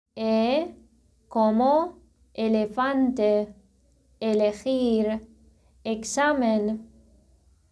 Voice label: spanish